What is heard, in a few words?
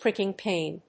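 "pricking pain", English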